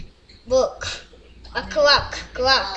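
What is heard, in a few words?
"clock", spoken word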